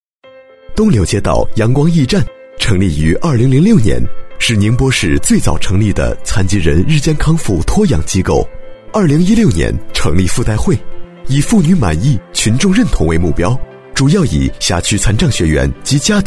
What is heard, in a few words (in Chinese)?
男 企业专题 亲和稳重; 阳光驿站; 质感磁性; 年轻稳重